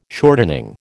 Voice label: "shortening", American

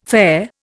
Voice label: "fare", Indian

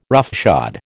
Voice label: "roughshod", American

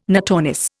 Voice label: "notornis", Indian

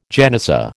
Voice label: "genizah", American

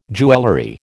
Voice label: "jewellery", American

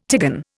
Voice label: "tigon", Indian

